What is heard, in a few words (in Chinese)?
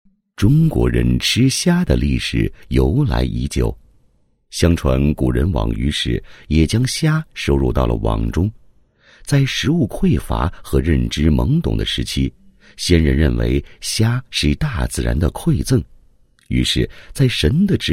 男-宣传广告; 宣传简介; 七货虾馆宣传简介